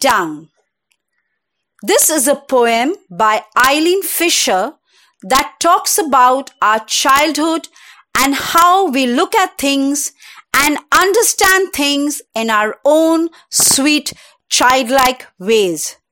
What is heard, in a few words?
Recitation